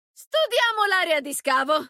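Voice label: Italian; 守望先锋2; 终极技能; 主动/敌方释放语音; Studiamo l'area di scavo!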